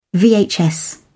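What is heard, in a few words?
"vhs", British English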